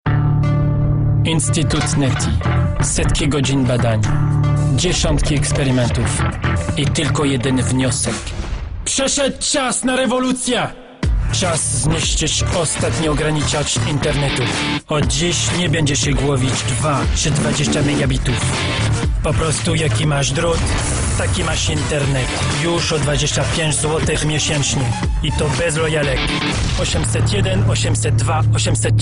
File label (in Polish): Reklama